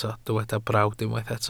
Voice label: "brawd", Welsh